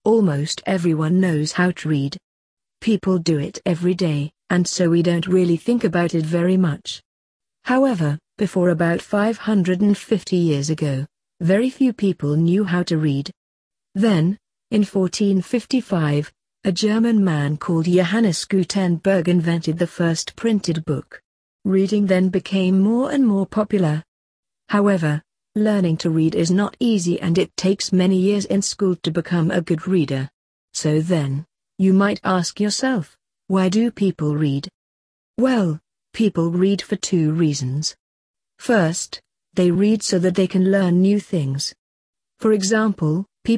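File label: British; why read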